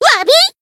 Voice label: finish, jp; myungwha

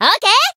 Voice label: limitbreak, jp

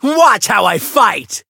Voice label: chant; nero

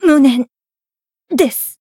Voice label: voice jp

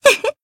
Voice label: Voice attack jp; rosetta

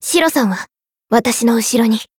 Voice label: encount fear, jp; maturika

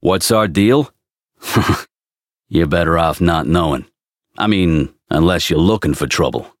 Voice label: Voice story; hardy

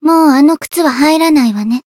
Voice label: growth, jp

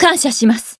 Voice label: Voice healed jp; herena

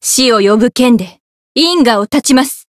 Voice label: chant, jp; niike

